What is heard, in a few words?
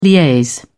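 "liaise", British English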